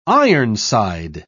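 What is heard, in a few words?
"ironside", British English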